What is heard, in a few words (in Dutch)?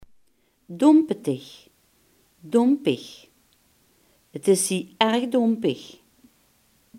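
"bedompt" Limburgish dialect word; bedompt, dómpetig, dómpig; 't Is hie erg dómpig.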